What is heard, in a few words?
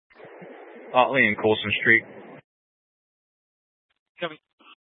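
Sheriff Dispatch, scanner audio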